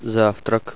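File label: breakfast, russian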